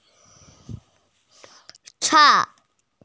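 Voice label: "chang4", Mandarin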